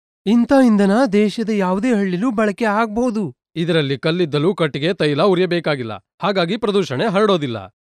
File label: Kannada Male